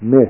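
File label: "mi", Japanese kana; Learn Kana 2.01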